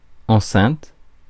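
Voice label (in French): "enceinte", French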